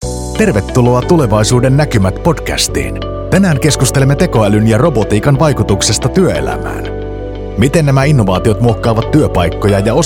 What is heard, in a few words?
Podcast; FI; GoLocalise